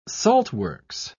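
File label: "saltworks", British English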